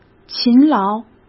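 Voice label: "勤劳", Mandarin Chinese; chinese-flashcards; hsk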